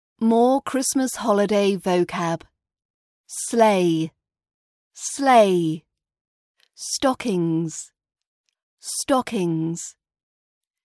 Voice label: more christmas vocab british accent pronunciation practice